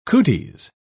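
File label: "cooties", British English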